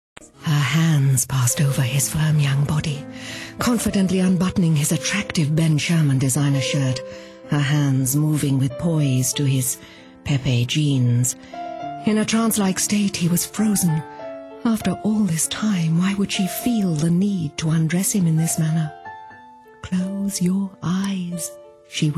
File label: seductive lawyer, readings